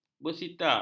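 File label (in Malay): "bersila", Malay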